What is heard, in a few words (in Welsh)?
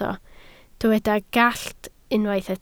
"gallt", Welsh